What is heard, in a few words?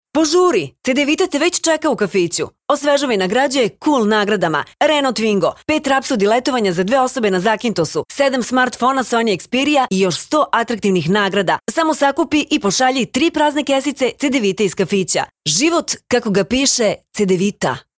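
Serbian commercial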